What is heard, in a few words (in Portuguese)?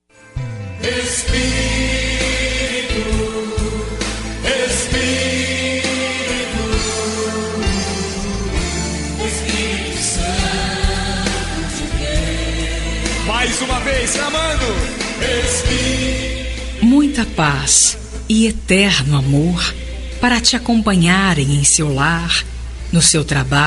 madrinha fem, voz feminina; Dia das Mães – Consideração Madrinha